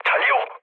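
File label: Korean enemy detected mood low, speech; War Thunder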